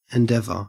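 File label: "endeavour", Australian English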